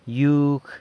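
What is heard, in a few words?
"yug", yoke, San Lucas Quiaviní Zapotec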